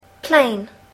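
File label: "plane", English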